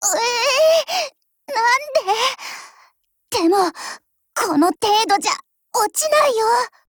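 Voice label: BREAK, Japanese; MP446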